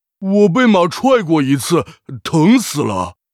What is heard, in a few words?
peasant pissed, zh